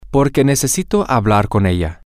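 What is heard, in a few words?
because I need to speak with her, Spanish, male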